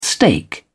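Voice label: "stake", British English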